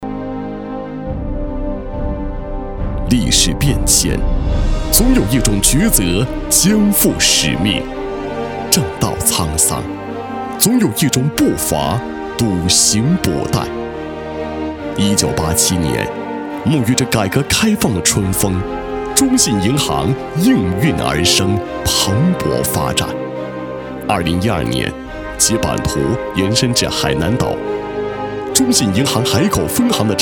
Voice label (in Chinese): 男 银行海口分行宣传片; 银行宣传样音; 中信银行海口分行宣传片